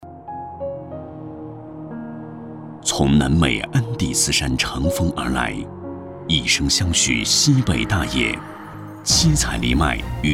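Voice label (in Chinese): 男 广告, 酒类广告配音; 藜麦藏酒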